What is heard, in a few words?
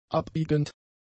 "abbiegend", German; adjectives